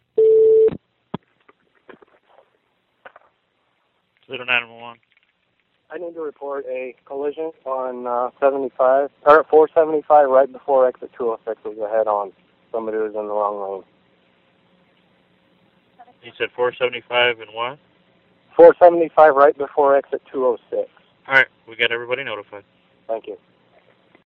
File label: 911 call; Accident at mile marker 206